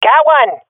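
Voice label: i killed enemy, swat female; vo; Counter Strike Model Pack; CS:GO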